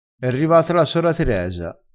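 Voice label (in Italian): "sora", vocabolario dialettale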